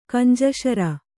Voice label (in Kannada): "ಗುಂಜಿಸರಿ", Kannada